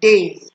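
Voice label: "dez", Nigerian Pidgin, female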